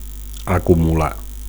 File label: "acumular", Catalan; to accumulate; to collect; to gather